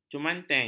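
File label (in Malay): "jumanten", Malay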